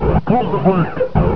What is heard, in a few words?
flank, combine soldier, far; hl2